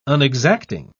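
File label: "unexacting", British English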